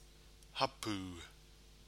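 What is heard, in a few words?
"hapu", Maori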